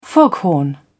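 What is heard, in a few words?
"foghorn", British English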